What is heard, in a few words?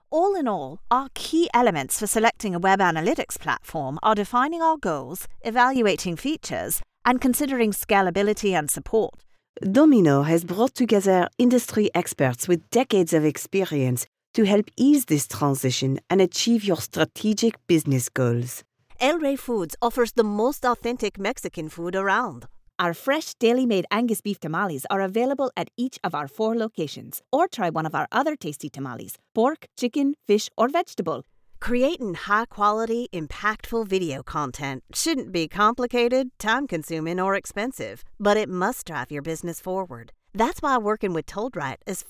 Accents, English voice over